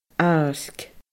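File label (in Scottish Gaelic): "àirc", Scottish Gaelic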